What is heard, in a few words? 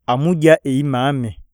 eat, iyasa